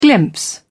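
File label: "glimpse", British English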